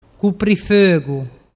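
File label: "cuprifogu", Vernazzese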